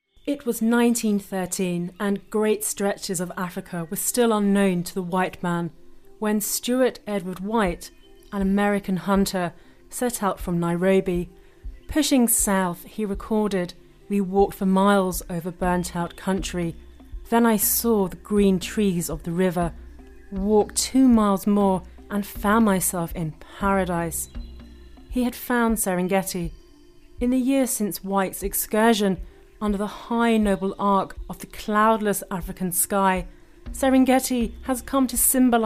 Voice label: UK Voice Narration